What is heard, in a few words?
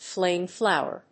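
"flame-flower", English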